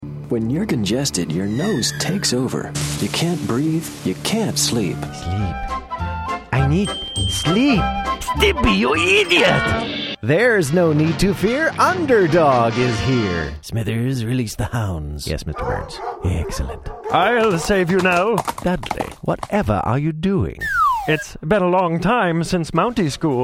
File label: male animation